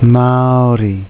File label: "maaori", maori